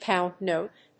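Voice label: "pound note", English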